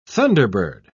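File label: "thunderbird", British English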